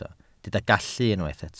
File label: "gallu", Welsh